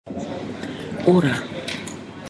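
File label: "ora", Māori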